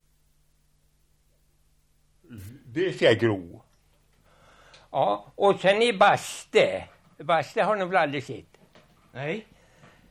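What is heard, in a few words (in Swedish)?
Alunda Uppland, dialekter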